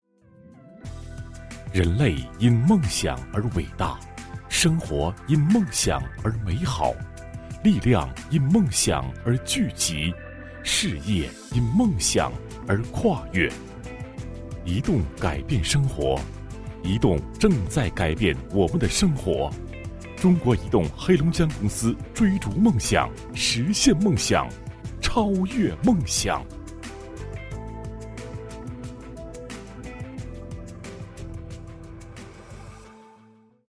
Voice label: 男 广告, 国语男声配音 磁性; 移动黑龙江

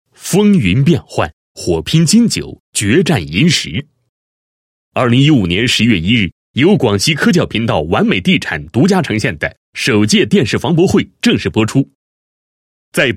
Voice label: 男-栏目包装-节目宣传, 配音案例; 完美地产

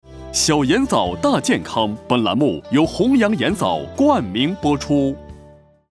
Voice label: 男 节目冠名; 红阳盐藻

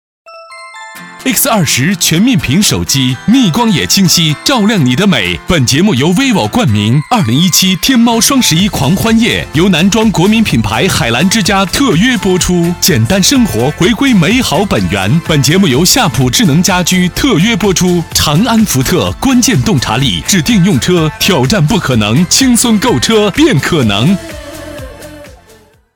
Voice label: 男 促销广告 激情有力; 四条联播

